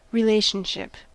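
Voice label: "relationship", US English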